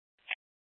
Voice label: Fire Departments Mesa Simulcast; TOPAZ

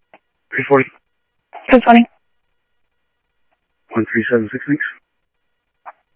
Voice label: Sheriff-WestCnty, scanner audio

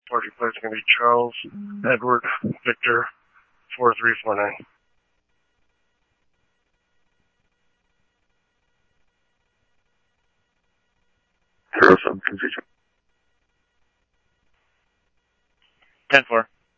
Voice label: Scottsdale PD Scottsdale Police, scanner audio

